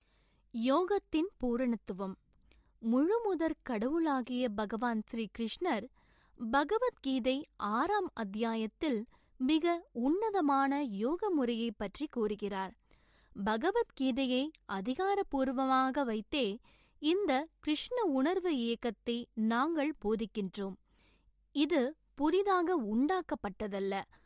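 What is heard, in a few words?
Tamil female narration; voice-over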